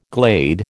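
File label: "glade", American English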